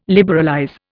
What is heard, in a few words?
"liberalize", Indian